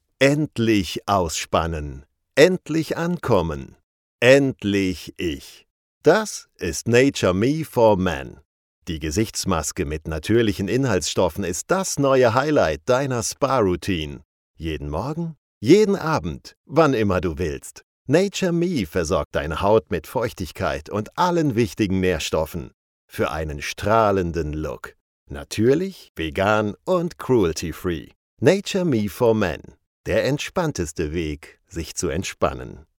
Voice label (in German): Werbung; Gyrantol